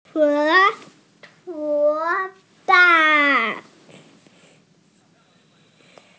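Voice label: "huo3ban4", Mandarin Chinese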